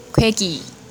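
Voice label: meat, Jeju-eo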